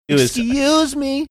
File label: excuse me funny drawn out